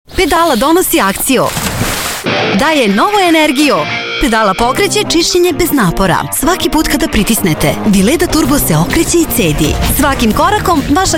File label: TVC, serbian